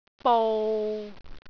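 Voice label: "bou6", Cantonese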